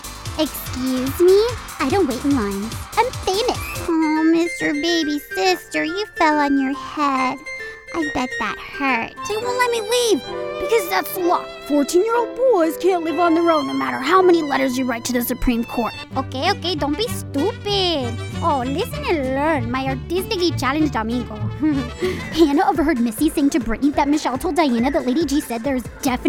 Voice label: Animation